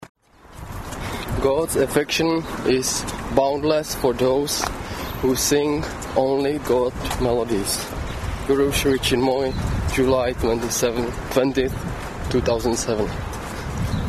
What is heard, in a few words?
poem